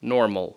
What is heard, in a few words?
"normal", English